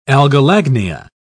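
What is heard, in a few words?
"algolagnia", British English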